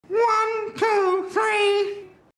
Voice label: neanderthal speech